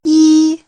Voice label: "ji" Chinese